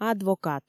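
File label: "адвокат", Komi, female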